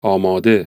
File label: farsi religious; age2